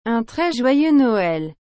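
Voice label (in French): "Un très joyeux Noël", French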